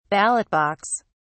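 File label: "ballot box", British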